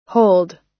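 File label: "hold", US English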